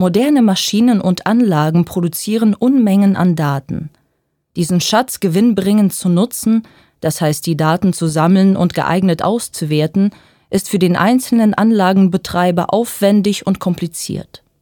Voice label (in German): Werbung